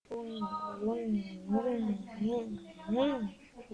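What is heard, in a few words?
"ring", spoken word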